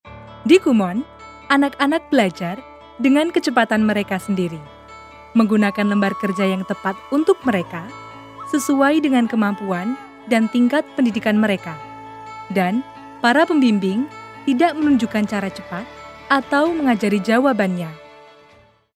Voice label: INDO CASUAL

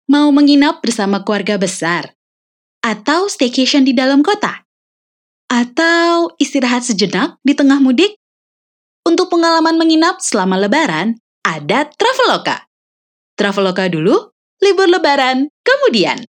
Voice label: INDONESIA CASUAL

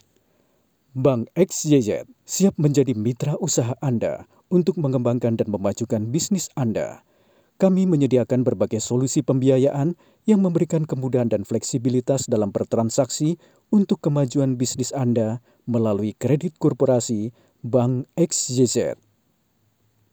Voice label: INDONESIA FORMAL

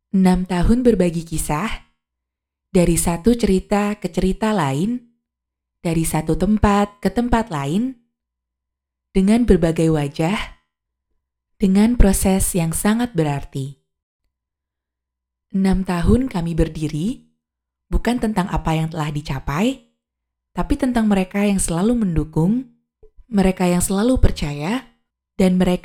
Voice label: INDONESIA FORMAL, voiceover

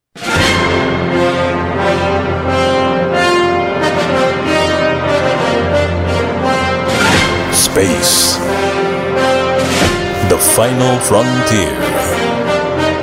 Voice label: ENGLISH FORMAL

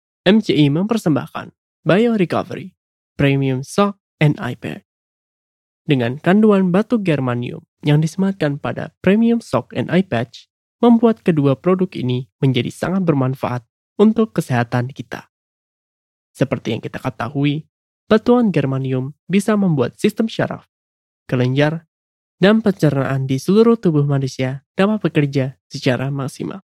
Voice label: FORMAL INDO

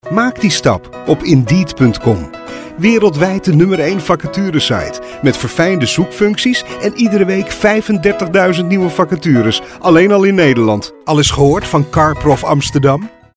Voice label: Dutch commercial

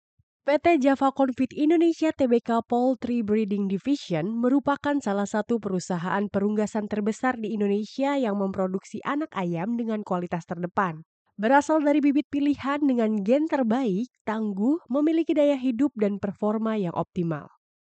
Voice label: Indonesia Formal

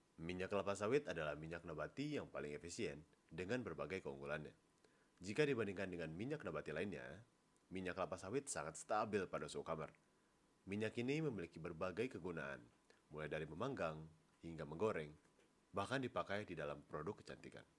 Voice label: Formal Indonesia

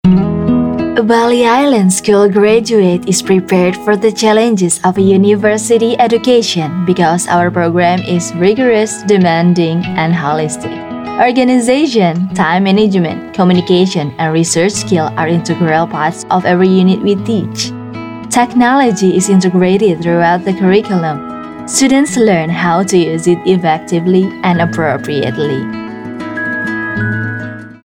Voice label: ENGLISH FORMAL

